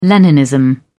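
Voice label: "leninism", British English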